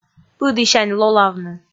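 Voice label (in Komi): "пыдісянь лолавны", Komi, female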